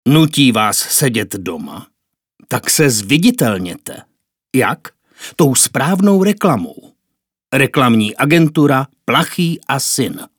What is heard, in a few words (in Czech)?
reklama